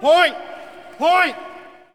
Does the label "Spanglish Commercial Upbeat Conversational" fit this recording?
No